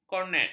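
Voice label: "kornet", Malay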